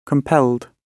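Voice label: "compelled", British English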